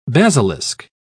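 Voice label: "basilisk", British English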